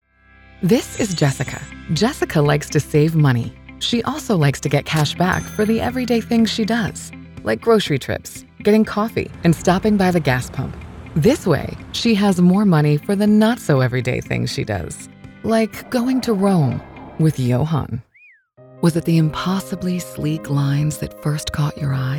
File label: Commercial Demo